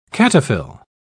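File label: "cataphyll", British English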